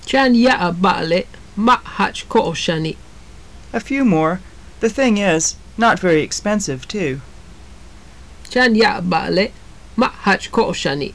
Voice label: conversation, maya; Chan ya'ab, ba'ale' ma' jach ko'oj xa.; A few more, the thing is not very expensive.